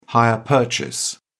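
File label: "hire purchase", British English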